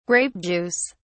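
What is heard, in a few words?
"grape juice", British English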